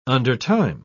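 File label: "undertime", British English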